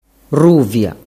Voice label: "ruvia", dialettu